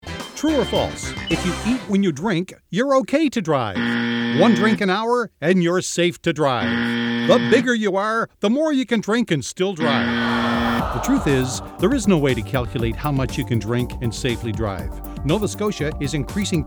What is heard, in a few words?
Radio; road safety